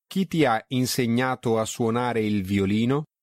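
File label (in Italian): chi ti ha insegnato a suonare il violino, Italian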